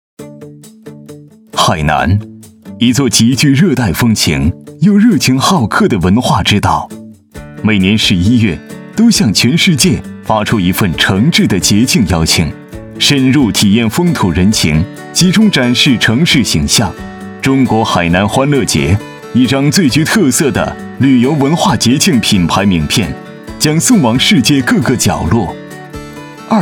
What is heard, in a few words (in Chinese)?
男 大气时尚; 海南国际旅游岛欢乐节